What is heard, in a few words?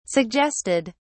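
"suggested", British English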